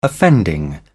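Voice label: "offending", British English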